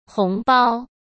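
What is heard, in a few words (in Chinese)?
"红包", Mandarin Chinese; hsk; chinese-flashcards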